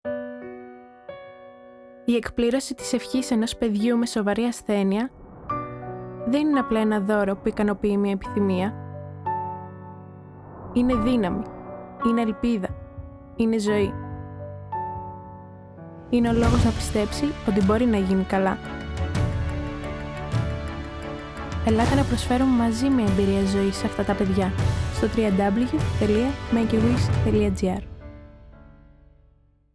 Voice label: RADIO SPOT